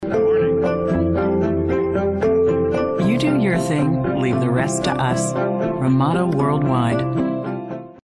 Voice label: Commercial Hospitality Friendly Warm